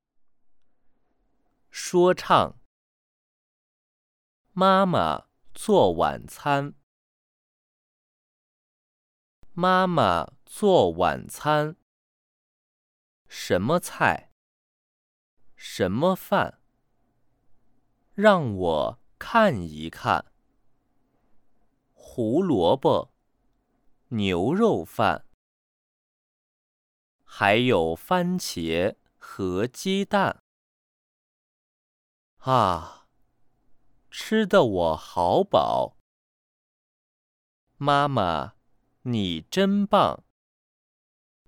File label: RAP